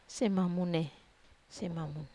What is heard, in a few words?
"mamu", Wamesa